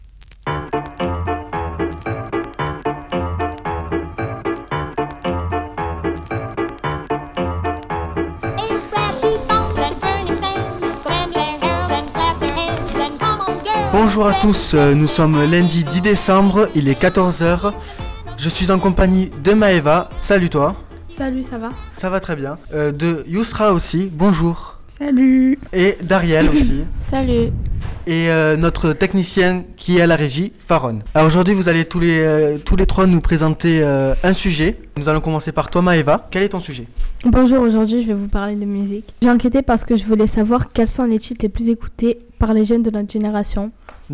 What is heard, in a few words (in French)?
Voix Off